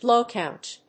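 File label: "blow count", English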